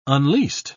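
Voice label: "unleased", English, British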